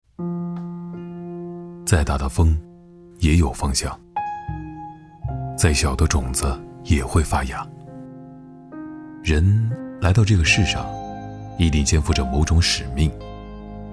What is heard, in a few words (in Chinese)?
男国 微电影 人物内心独白